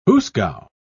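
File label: "hoosgow", British English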